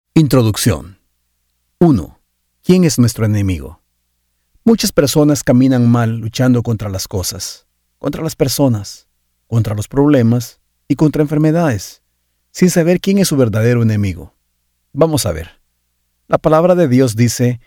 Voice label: Audiobook (Faith)

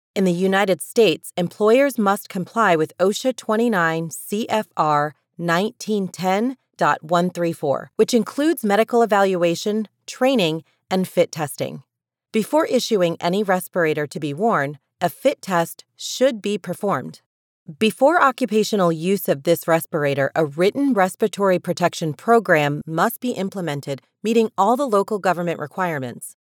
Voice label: Explainer N95 Respirator OSHA Compliance

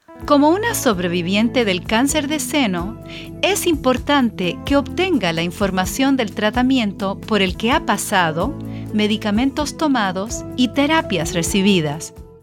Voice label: Spanish Breast Cancer Survivor

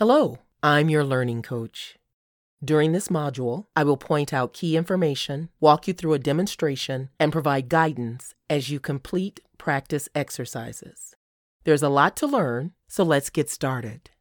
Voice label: In-house E-learning Course

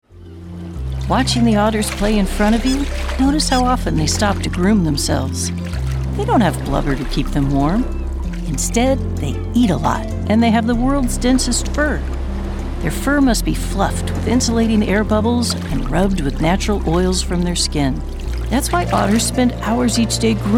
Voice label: Audio Tour Aquarium; natural, upbeat, educational

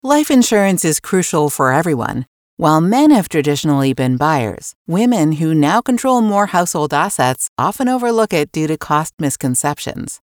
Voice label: Female Insurance Agents

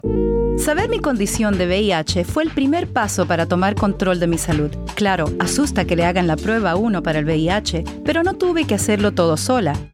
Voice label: Spanish Testimonial; MA Dept. of Health VIH

